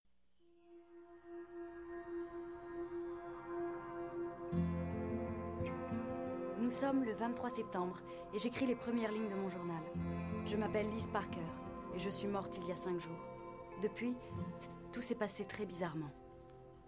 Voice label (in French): french